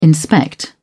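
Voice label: "inspect", British English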